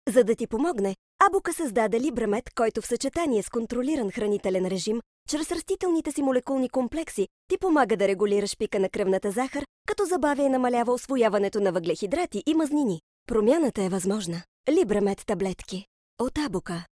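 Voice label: bulgarian female commercial, voice demos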